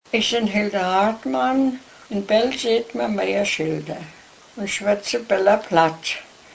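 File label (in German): vorstellung, O-Ton Hunsrück; Bell (Hunsrück)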